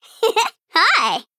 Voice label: Female Gnome GREETINGS